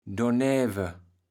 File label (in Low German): "dornäwe", kaevels platt